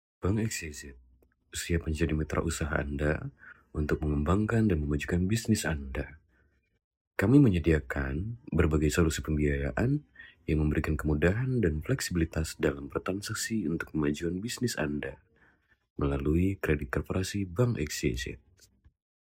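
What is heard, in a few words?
Voiceover casual Indonesian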